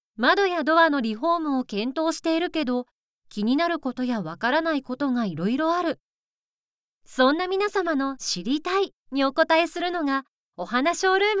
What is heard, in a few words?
female